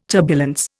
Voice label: "turbulence", Indian

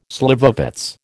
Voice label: "slivovitz", American

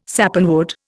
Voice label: "Sappanwood", Indian

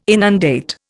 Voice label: "inundate", Indian

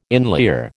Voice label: "inlier", American

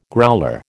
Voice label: "growler", American

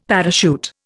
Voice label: "parachute", Indian; Dictionary

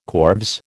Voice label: "corves", American

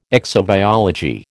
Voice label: "exobiology", American

